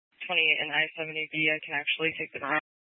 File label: Police Dispatch, scanner; Police 1 Dispatch